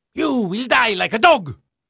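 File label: Dutch male, voice; Pirates Of The Caribbean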